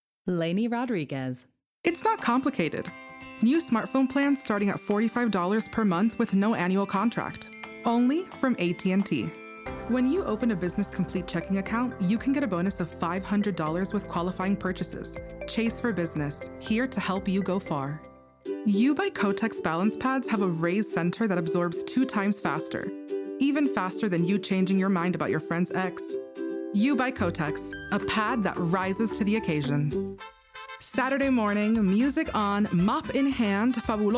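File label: commercial VO; Voice Over Reel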